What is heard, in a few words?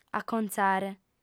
"acontzare", audiofem